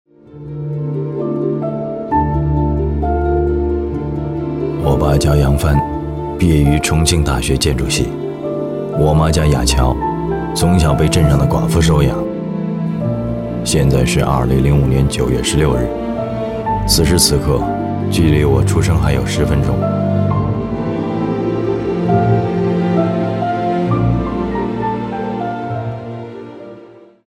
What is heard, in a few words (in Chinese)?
男 微电影 独白, 国语男声配音